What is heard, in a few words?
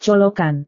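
"colokan", Indonesian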